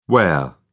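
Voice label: "ware", English, British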